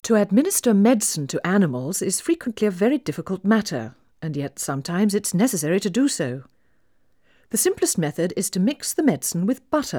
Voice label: Female speech English Mono output; 4bit